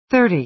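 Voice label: "thirty", American English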